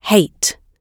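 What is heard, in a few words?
"hate", British English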